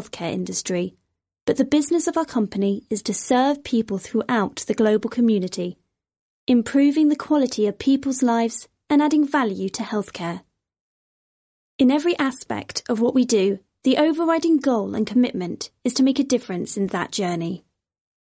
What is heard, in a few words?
English F Medical